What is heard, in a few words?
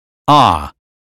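American phonetics sound father; 英语音标发音（剑桥版）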